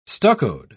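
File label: "stuccoed", English, uk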